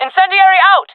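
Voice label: throwing fire, jungle female; vo; Counter Strike Model Pack; CS:GO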